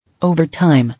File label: "Overtime", English